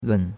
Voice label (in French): "lun3", chinois; Cours de Chinois